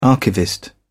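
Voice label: "archivist", English, British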